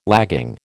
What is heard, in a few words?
"lagging", American